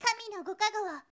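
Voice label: girl, "kaminogokagowo"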